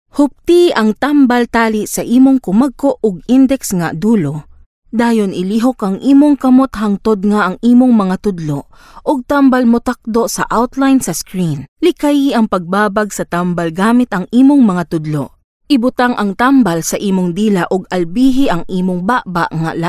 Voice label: Cebuano Voice Sample